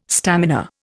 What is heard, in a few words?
"stamina", Indian